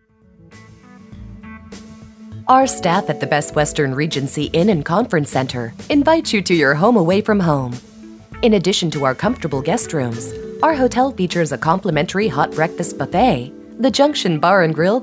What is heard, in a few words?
Hotel, on hold; Hotel Demo Best Western